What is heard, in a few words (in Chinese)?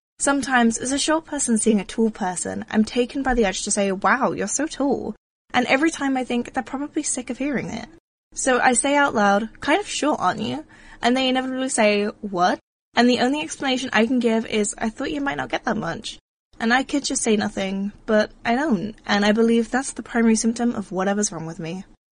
英式女声